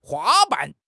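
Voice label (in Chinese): "花瓣", Chinese; HSK6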